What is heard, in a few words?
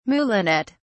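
"moulinet", British English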